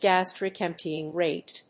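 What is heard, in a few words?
"gastric emptying rate", English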